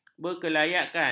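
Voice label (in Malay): "berkelayakan", Malay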